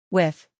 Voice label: "with", English